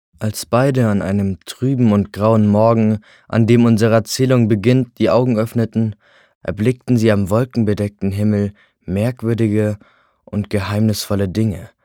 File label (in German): jungen; Licht in Dunkelheit